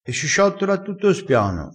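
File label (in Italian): "ciottola", dialettale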